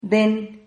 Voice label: "den", Silabas

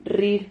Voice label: "rrir", Silabas

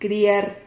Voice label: "criar", Silabas

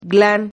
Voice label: "glan", Silabas

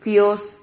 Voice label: "fios", Silabas

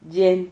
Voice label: "llen", Silabas

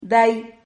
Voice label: "day", Silabas

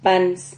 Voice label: "pans", Silabas

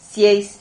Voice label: "sieis", Silabas

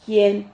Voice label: "jien", Silabas

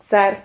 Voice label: "xar", Silabas

